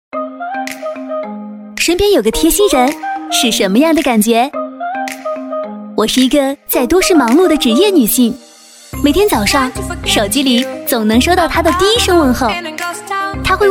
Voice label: 女 旁白 贴心物管 稍活泼

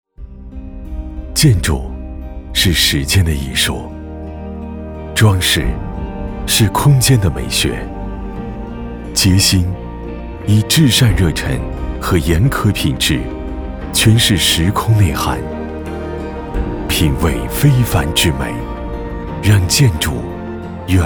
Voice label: 男 宣传; 洁昕

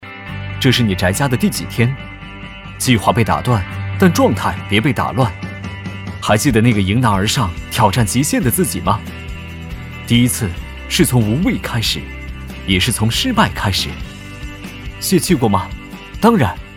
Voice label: 男 年轻 活力; 清扬

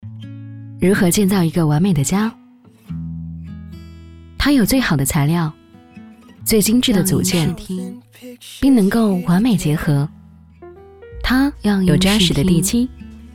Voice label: 女 温馨广告; 理想汽车

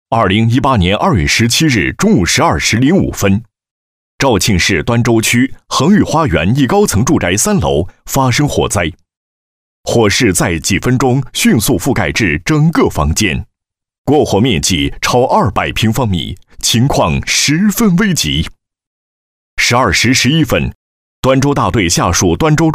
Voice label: 花园火灾视频旁白

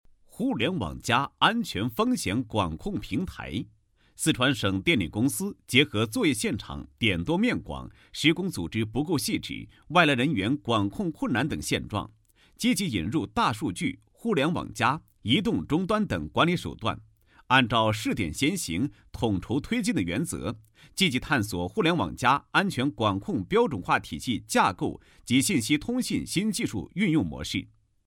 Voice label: 男, 企业宣传; 互联网加 安全风险管控平台

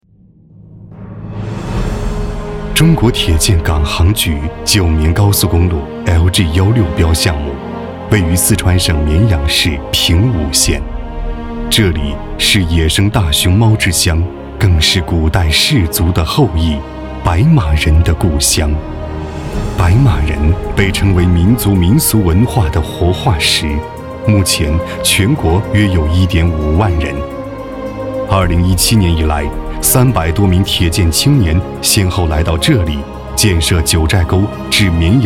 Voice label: 男 汇报 工程大气; 浇灌白马

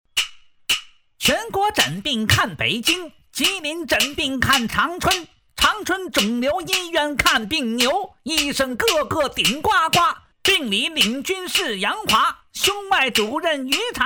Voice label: 男 专题解说 数来宝

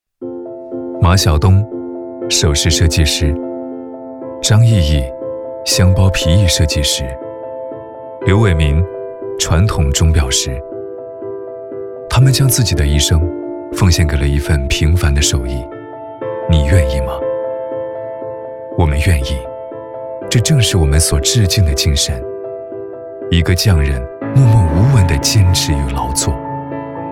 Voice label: 男, 专题, 自然, 讲述, 一个匠人

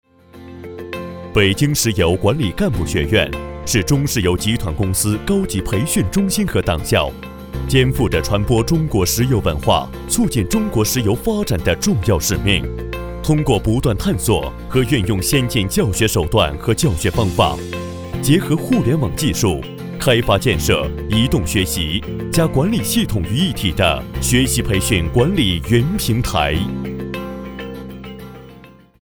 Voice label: 男-课件; 党建课件; 中国石油

